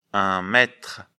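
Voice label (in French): "maitre", French; afrikalan; lecture fr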